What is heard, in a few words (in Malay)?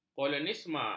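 "kolonialisme", Malay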